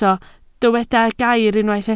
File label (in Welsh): "gair" Welsh